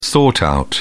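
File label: "sort out", British English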